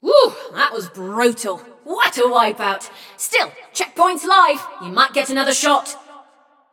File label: Scrap Run Go To Checkpoint, Announcer; Flux